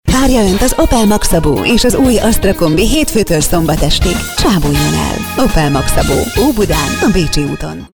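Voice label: Hungarian, female, commercial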